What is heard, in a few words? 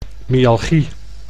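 "myalgie", Dutch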